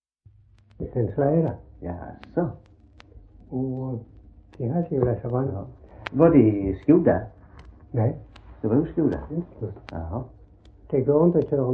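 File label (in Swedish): S Röstånga, Swedish dialect; Folkminnen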